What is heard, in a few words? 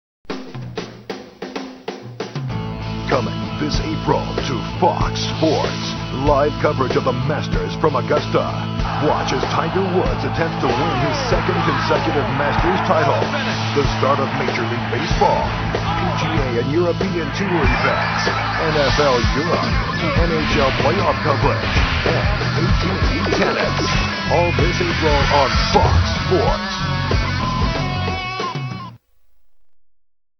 promo